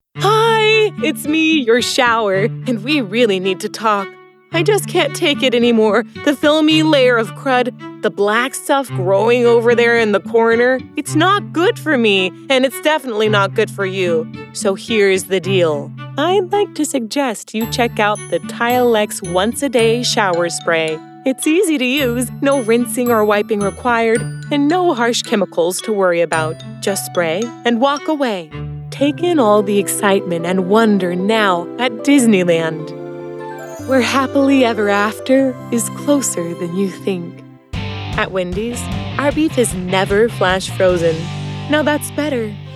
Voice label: commercial VO